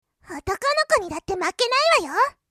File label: genki girl